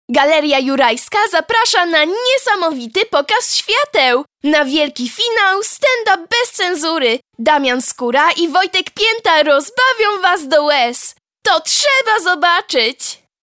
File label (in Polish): Reklama, kobiecy